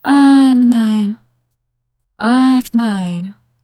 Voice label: female say; interaction; evolutional